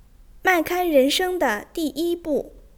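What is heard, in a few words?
"mai4kai1ren2sheng1", Chinese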